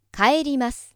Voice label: female